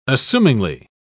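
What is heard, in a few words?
"assumingly", British English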